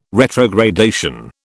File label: "retrogradation", British